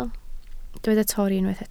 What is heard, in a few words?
"torri", Welsh